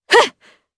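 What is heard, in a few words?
Vox Attack, Japanese; kingsraid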